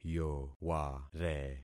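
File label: "yoware", concatenated syllables; Affixing experiment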